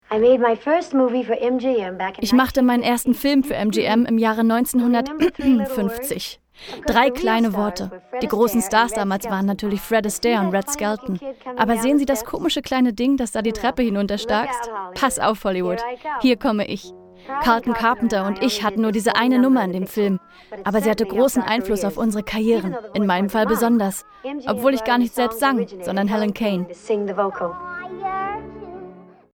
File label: VOICE OVER; Debbie Reynolds